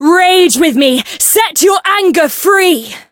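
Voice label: encounter fear; aisha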